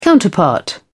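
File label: "counterpart", British English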